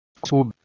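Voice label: "ksub", Proto-Indo-European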